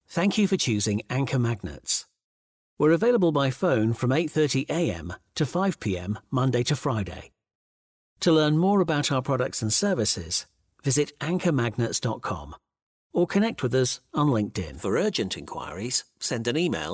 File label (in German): Britische Sprecher IVR Telefonansagen